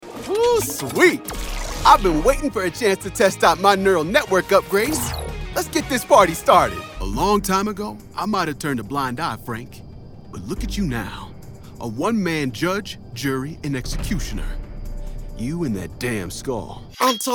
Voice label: Animation